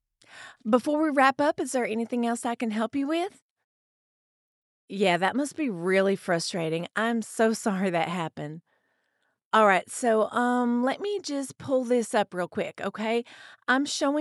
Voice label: customer Service southern

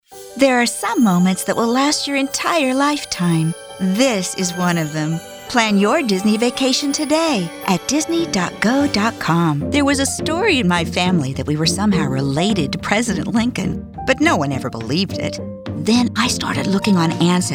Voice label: Commercials

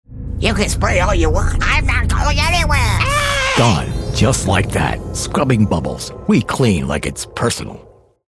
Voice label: Character; Scrubbing Bubbles

